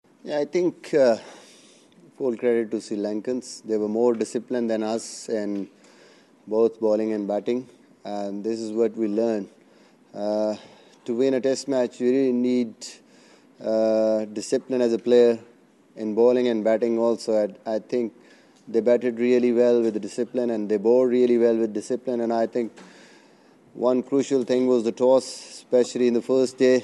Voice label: media conference english; misbah-ul-haq